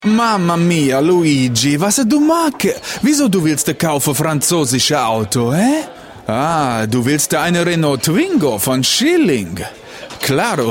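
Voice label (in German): Italienischer Akzent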